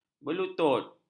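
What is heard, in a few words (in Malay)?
"berlutut", Malay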